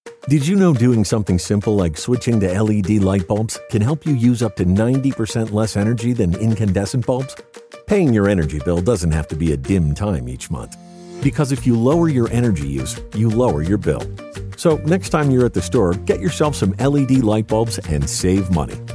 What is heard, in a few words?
Radio Spot Lights